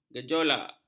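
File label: "gejolak", Malay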